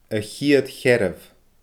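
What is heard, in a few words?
"a chiad cheathramh", Scottish Gaelic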